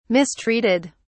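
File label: "mistreated", British English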